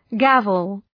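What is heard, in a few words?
"gavel", English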